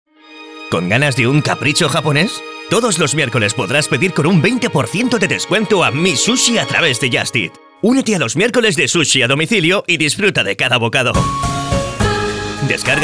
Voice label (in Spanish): Masculino; Sonoarte